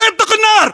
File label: order attack infantry, battlechatter, english